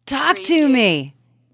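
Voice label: Woman Says Talk To Me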